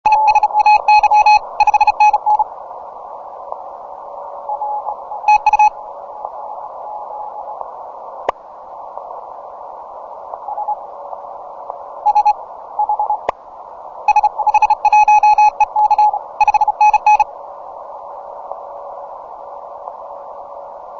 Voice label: 20M SSB